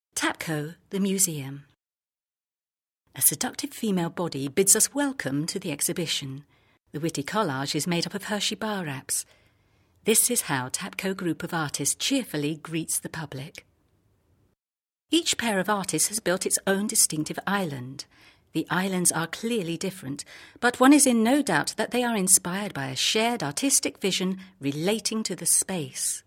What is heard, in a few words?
Narration